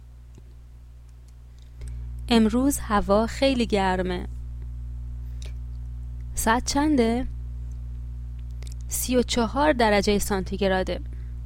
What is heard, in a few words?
pronunciation, Persian